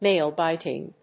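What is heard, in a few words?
"nail-biting", English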